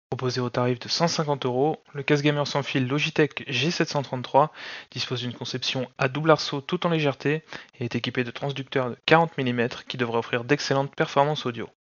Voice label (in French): test son logitech g733 base